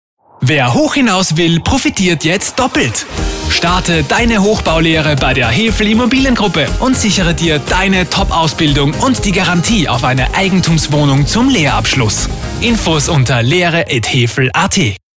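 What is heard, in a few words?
Austrian German, male, commercial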